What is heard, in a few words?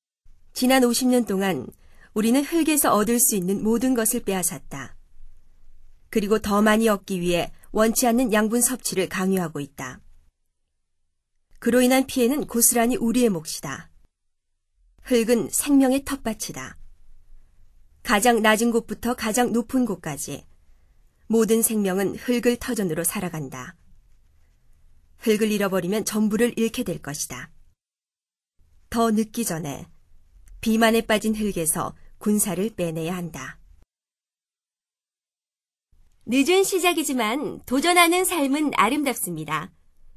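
Korean, female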